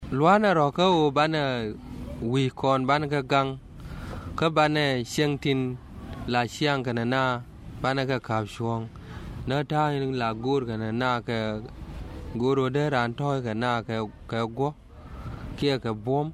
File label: Radio Jingle Nuer; ROA